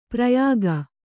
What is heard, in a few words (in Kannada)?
"ಪ್ರಯೋಗ", Kannada